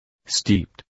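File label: "steeped", English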